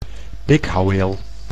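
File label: "pikhouweel", Dutch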